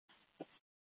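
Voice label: Police Department North Dispatch, scanner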